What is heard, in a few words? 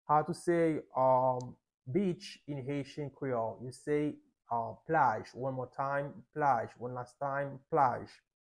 Beach in Haitian Creole plaj with pronunciation